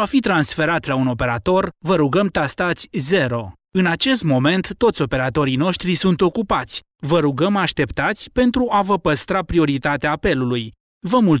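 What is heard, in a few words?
Romanian male voice over, upbeat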